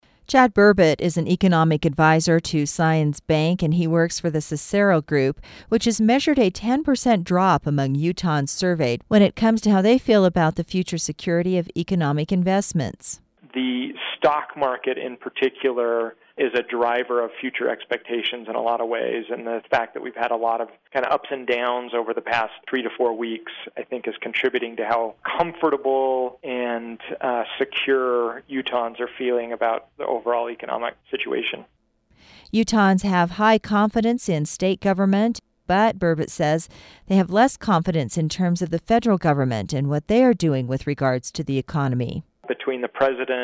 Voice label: host narration

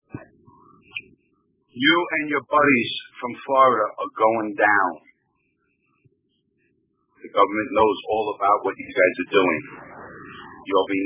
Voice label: voice message

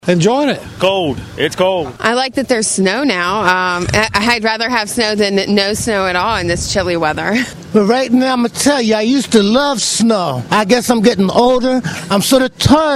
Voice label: vox pop; snow

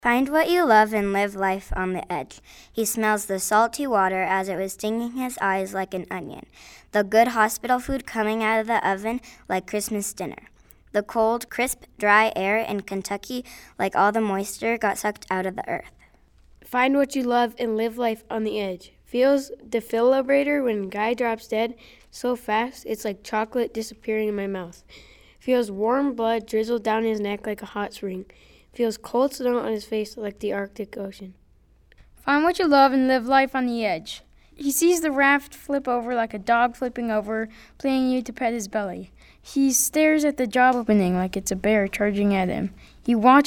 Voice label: voices group

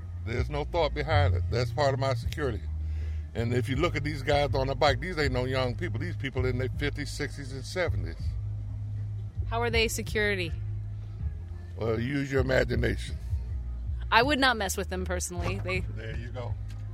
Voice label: Motorcycle Convo

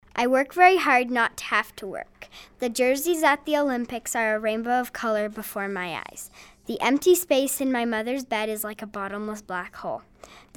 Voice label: voices group

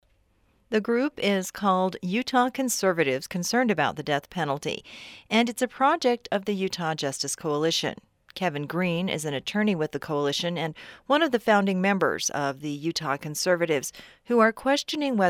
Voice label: narration

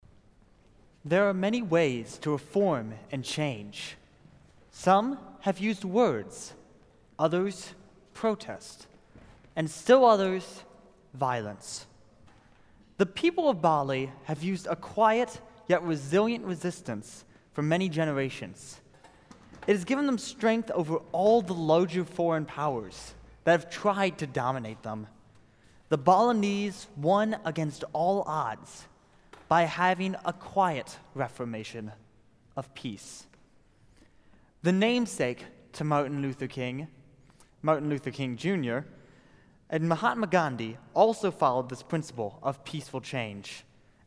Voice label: narration

